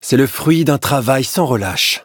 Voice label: victory, fr; cookie run

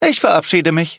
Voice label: victory, de

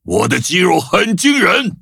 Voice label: levelup zh-hant

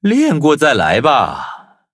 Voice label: victory, zh-hant; cookie run

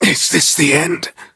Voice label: hit, en; cookie run

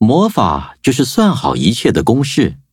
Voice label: loading, zh-hant; cookie run

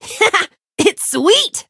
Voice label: level up, en

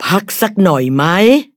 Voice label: level up, th; Cookie Run

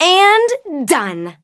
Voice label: victory, en; cookie run

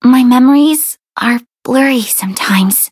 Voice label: touch, en; cookie run

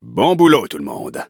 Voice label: victory, fr; cookie run

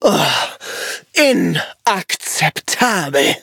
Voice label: defeat, de; cookierun

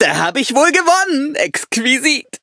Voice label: victory, de; Cookie Run

